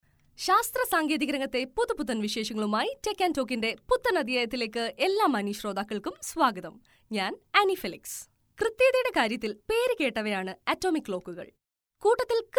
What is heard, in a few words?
female malayalam voice over upbeat